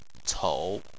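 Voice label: "cou" third tone, Chinese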